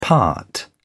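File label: "part", British English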